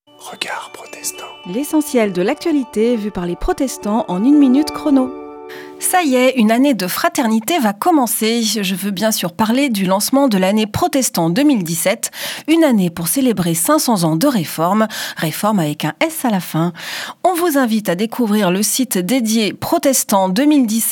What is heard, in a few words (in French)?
Spot radio; Regards protestants